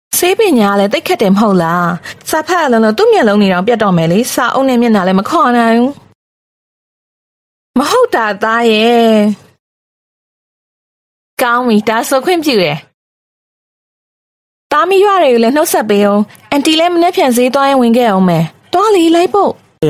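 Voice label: Burmese f Narration, female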